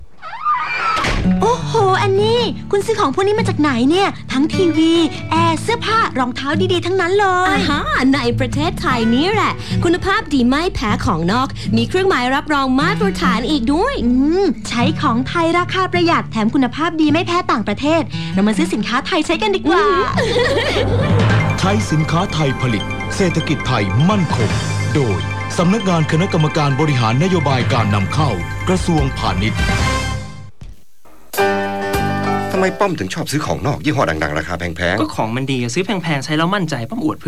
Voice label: radio buy thai